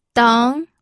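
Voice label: "deng", pinyin, second tone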